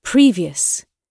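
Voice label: "previous", British English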